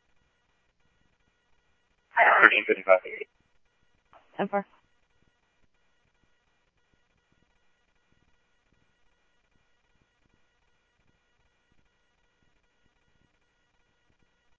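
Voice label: Scottsdale Police Department PD1 Scottsdale Police, scanner audio; SCTSDLE PD - PD1; H-Scottsdale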